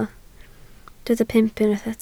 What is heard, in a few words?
"pump", Welsh